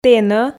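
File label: "тэнӧ", Komi, female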